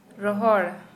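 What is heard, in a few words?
Santali, female, dry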